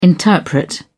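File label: "interpret", British English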